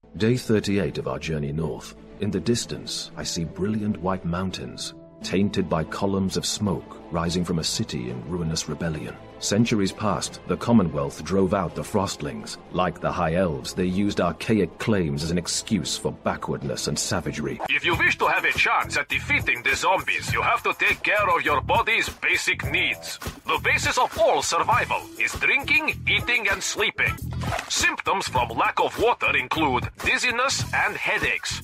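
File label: British English, male, Game Character; Reel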